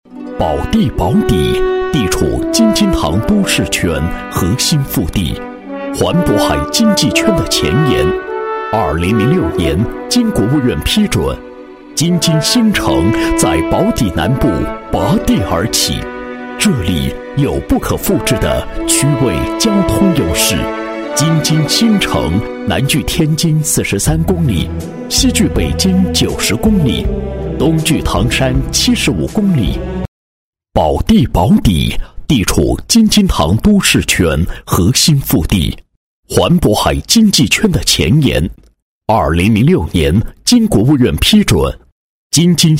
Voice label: 男 城市地区宣传 宣传片解说词, 配音; 京津新城

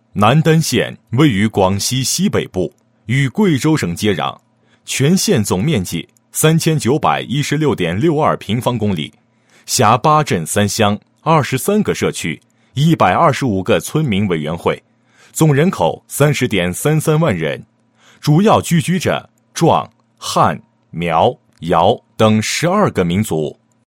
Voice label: 男 汇报, 配音; 水电农村电气化建设工作汇报片

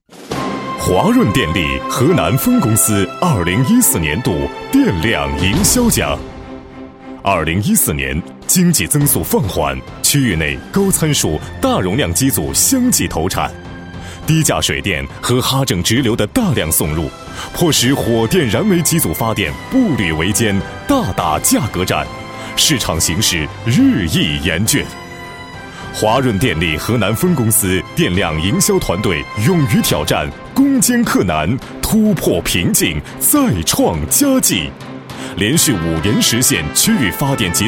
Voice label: 男 颁奖, 国语男声配音, 浑厚; 华润颁奖

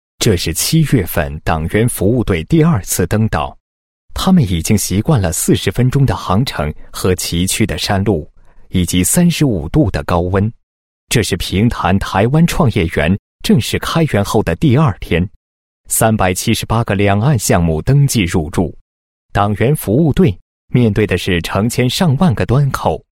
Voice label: 男 工作纪实 供电公司党员服务队工作纪实; 某供电公司党员服务队工作纪实

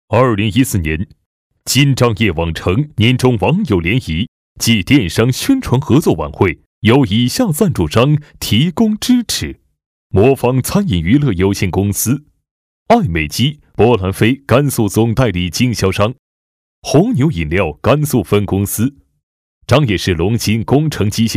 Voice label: 男 颁奖 颁奖类型, 国语男声配音; 大气专题

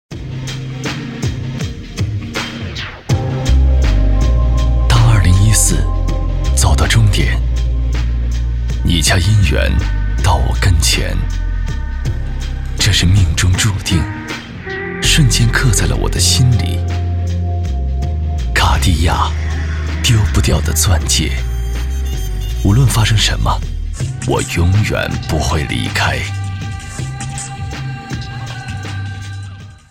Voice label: 男 广告 高档质感 钻戒; 卡地亚钻戒

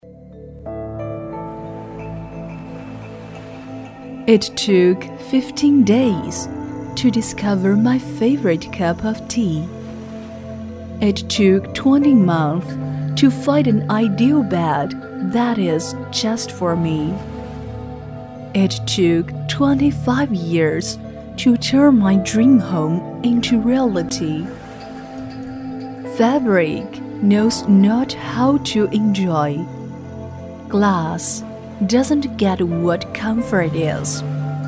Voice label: 英女 品牌英语广告; 艾芙迪

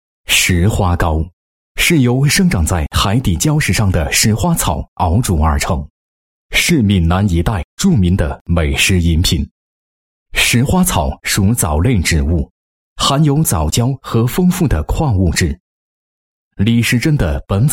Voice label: 男 产品介绍 电视文案; 石花膏

